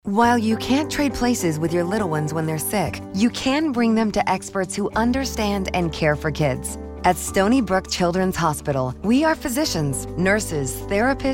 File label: Childrens Radio; Stony Brook Medicine